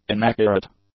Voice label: "inaccurate", English; adjectives